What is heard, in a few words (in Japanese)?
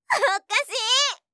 "おっかしい！", 相づち・反応系【ポジティブ】